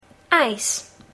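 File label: "ice", English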